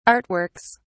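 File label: "artworks", British English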